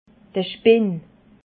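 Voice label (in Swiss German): "spinn", Alsatian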